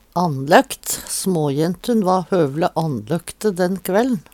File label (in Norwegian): "annløkt", Numedalsmål; Adjektiv